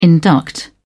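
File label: "induct", British English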